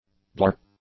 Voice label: "blear", English; adjectives